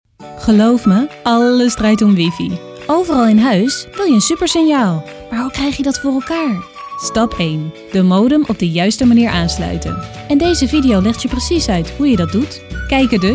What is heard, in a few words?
NL VO Narration